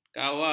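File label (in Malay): "kawar", Malay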